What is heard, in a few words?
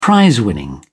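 "prizewinning", British English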